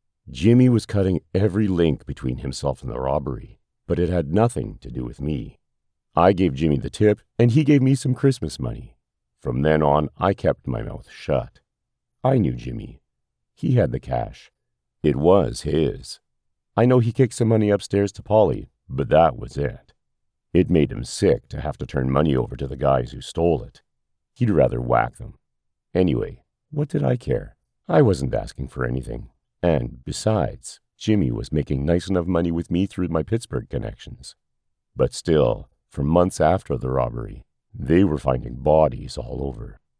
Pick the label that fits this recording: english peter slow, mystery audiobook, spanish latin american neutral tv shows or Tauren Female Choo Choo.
mystery audiobook